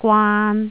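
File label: "gwaan3", Cantonese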